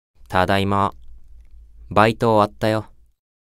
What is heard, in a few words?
Job Notification Voice; Belphegor